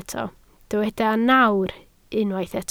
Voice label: "nawr", Welsh